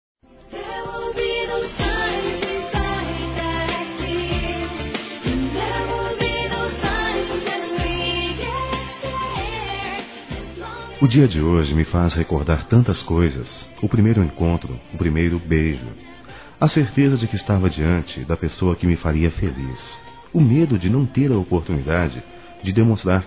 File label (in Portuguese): Aniversário Namoro Masculino